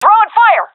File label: throwing fire, seal fem; vo; Counter Strike Model Pack; CS:GO